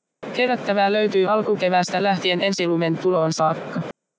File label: noisy babble